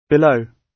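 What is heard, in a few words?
"below", British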